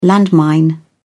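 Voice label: "landmine", British English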